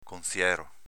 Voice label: "conziero", dialetto veneto